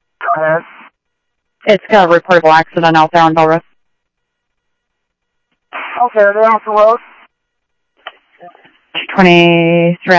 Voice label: Phoenix PD, scanner audio; PHOENIX PD-200 A; ThompsonPeakHigh